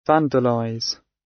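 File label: "vandalize", British English; Crime and punishment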